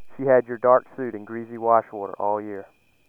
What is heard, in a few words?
male; FILTERED SOUND; IIR and FIR Filters